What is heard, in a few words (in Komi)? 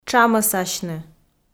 "тшамӧсасьны", Komi